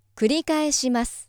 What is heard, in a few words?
female